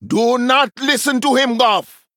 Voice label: Male Troll; Brukan; Guff